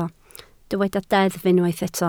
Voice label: "deddf", Welsh